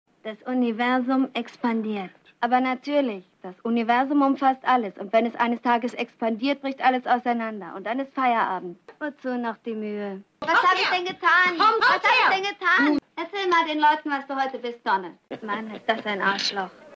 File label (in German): Kind, Stimmproben; Alvy Singer; Der Stadtneurotiker